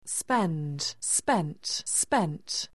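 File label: "spend", English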